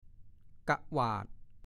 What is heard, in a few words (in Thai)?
"กะหวาด", ภาษาโคราช; กะหวาด / 1. ตวาด